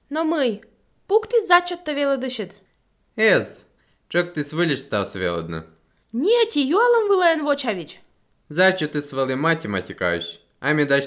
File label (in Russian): dialog